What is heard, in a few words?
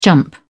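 "jump", British English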